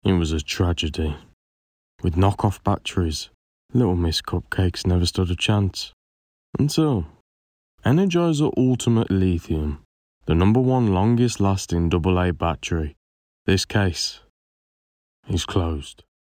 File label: BRITISH